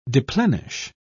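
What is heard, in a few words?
"deplenish", English, British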